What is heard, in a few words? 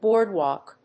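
"boardwalk", English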